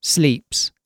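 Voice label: "sleeps", British English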